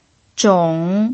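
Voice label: "zhong" Mandarin, third tone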